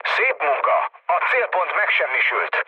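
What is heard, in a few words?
Hungarian bomb success mood low, speech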